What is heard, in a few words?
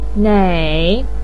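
"nei3", Mandarin pinyin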